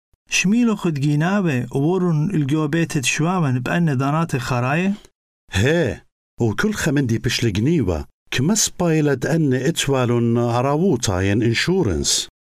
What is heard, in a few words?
insurance assyrian